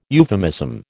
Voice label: "euphemism", American